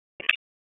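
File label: Raleigh Police North District Tactical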